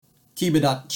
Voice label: "kiba dachi", basic japanese words; Basic Japanese Terms